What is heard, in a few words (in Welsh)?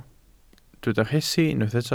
"rhesi", Welsh